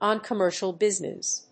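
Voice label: "on commercial business", English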